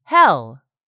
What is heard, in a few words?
"hell", English; 3000 words